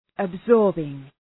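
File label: "absorbing", English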